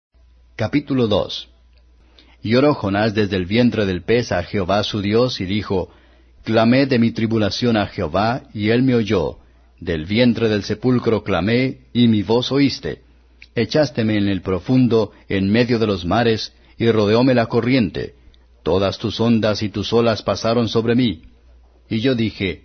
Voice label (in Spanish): jonas spanish